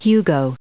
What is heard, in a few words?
"Hugo", us